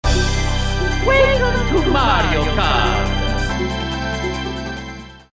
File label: startup voices us